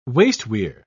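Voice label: "wasteweir", British English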